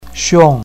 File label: "xiong4", pinyin